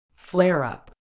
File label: "flare-up", medical English